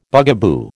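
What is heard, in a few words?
"bugaboo", American English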